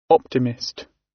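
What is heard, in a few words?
"optimist", British; Life, leisure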